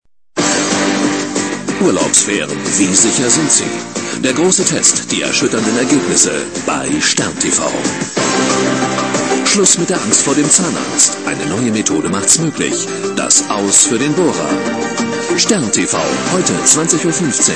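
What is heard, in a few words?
german male voice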